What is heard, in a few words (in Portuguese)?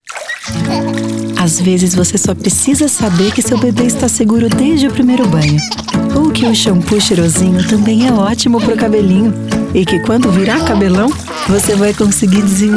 Comercial